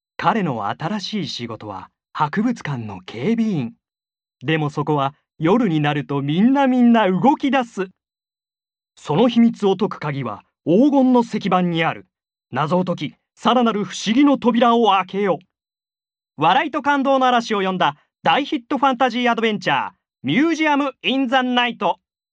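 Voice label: Japanese, male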